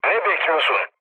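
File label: Turkish attack position, mood low, speech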